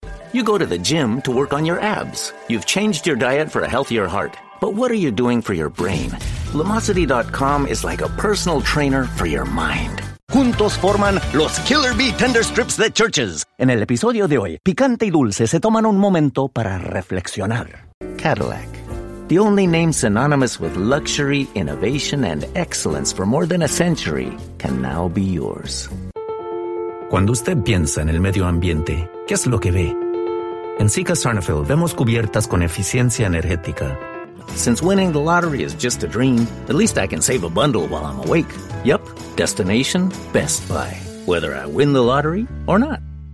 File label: Bilingual Commercial